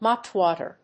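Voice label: "mopwater", English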